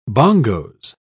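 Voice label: "bongos", British English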